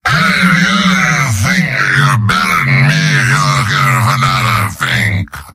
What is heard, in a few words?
demoman gibberish; mvm